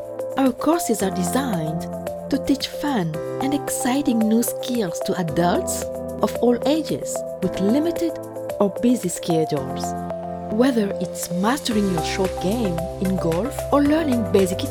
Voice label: Elearning Millennial Vibrant; Millenial Home